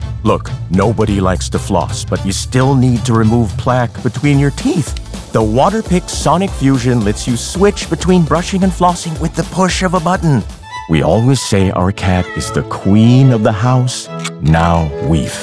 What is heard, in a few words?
Commercial